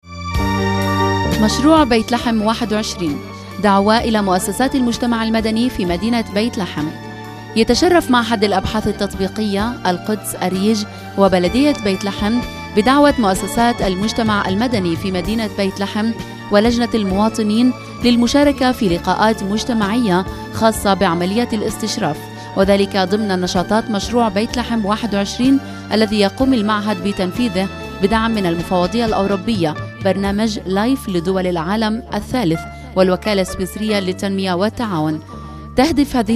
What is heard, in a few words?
radio; Bethlehem